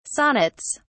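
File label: "sonnets", British English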